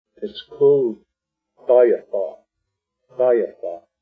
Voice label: "tóóyoo3óó'", Arapaho; tóóyoo3óó' = it is cold; Arapaho Language Project; RMNP; Weather Vocabulary related to Winter